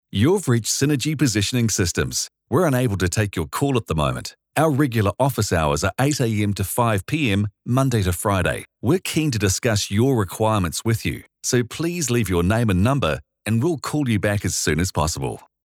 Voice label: Positioning Voicemail